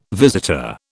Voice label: "visitor", British